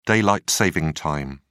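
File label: "daylight-saving time", English, British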